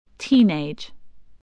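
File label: "teenage", English word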